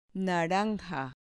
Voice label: "naranja", Spanish

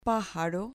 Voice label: "pajaro" Spanish

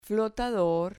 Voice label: "flotador" Spanish

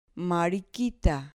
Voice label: "mariquita", Spanish

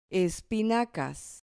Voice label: "espinacas", Spanish

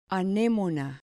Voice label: "anemona", Spanish

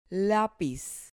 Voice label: "lapiz", Spanish

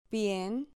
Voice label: "pien", Spanish syllable

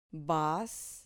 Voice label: "vas", Spanish syllable

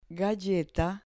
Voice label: "galleta", Spanish

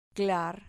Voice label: "clar", Spanish syllable

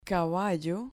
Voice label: "caballo", Spanish

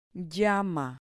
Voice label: "llama", Spanish

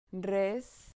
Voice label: "res", Spanish syllable

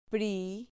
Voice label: "pri", Spanish

